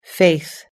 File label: "faith", us english